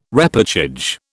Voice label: "repêchage", British